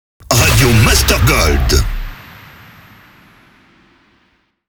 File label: Sweeper SPEED; Radio Master GOLD; Habillage